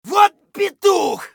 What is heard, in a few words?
scav battlecry; eft